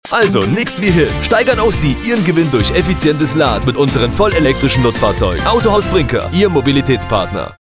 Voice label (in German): Radiowerbung